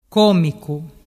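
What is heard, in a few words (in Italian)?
"comicu", dialect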